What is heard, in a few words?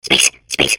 babble; portal2